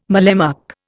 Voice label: "mallemuck", Indian